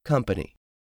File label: "company", English; 3000 words